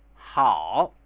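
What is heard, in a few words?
spoken sound "hao", Chinese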